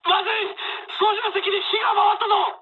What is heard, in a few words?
on fire mood high, speech jp; War Thunder